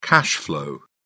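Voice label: "cash-flow", British English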